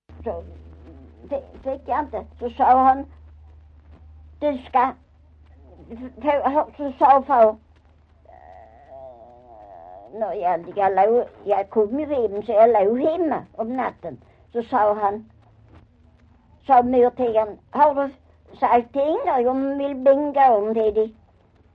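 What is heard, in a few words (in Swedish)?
Maglarp Skåne, dialekter; Folkminnen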